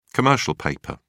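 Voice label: "commercial paper", English, British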